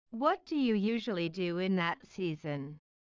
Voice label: low